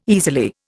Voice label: "easily", Indian

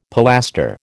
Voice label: "pilaster", American

